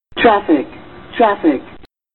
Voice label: Female Traffic Traffic